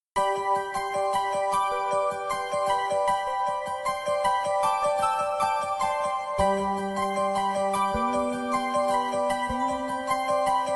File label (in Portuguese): futura mae masc, voz masculina; dia das maes para futura mamae